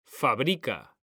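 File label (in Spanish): "fabrica", Spanish; mekanta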